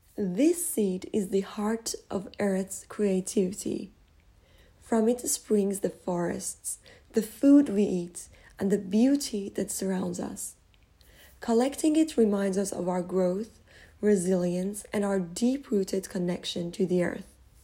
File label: Seed, Narration; Earth